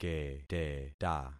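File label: "keteta", concatenated syllables; Affixing experiment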